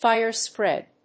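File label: "fire spread", English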